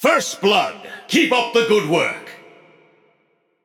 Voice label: Announcer Spree First Blood Enemy; Paladins; Bomb King

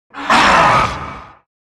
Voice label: pain; Jakiro

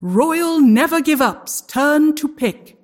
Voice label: announcer team pick

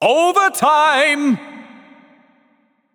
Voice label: Announcer Town Crier Match Overtime; Paladins

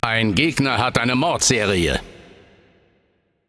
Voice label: Announcer German Spree Enemy 3 Kills; Paladins

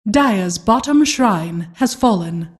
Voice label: announcer shrine fall dire bot

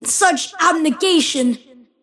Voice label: last hit pain; invoker

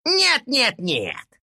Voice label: deny, ru

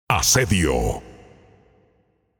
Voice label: Announcer Mode Siege

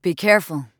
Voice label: Hunter Careful; Realm Royale

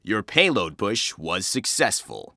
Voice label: Announcer Success Payload Push; Evan

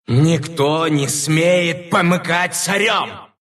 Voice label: rival, ru; Dota 2

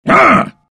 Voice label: ability warcry; Sven

